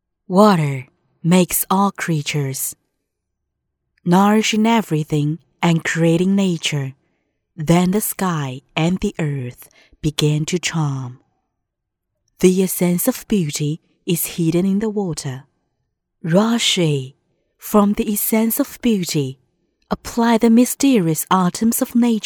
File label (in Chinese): 女 英文广告